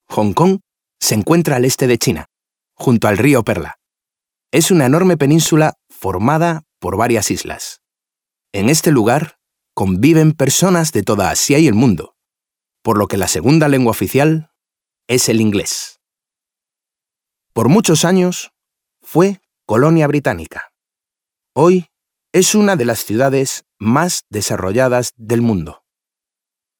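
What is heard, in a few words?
Spanish male corporate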